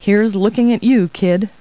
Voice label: people, kid

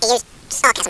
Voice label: Sarcasm; EDUCORP Catalog

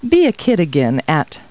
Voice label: people, kid

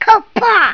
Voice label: "Kaplah!", Klingon; Klingon BG App; Hacks97

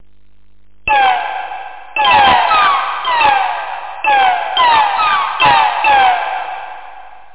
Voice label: et voice; Japan

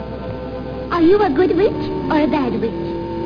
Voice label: witch

